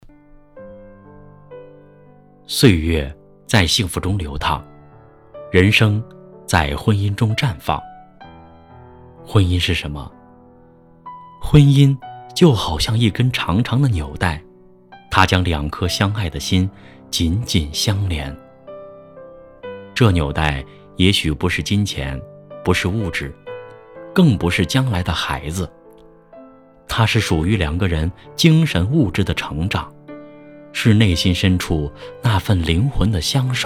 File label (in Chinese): 男 情感